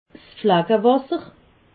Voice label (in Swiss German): "flackewasser", alsace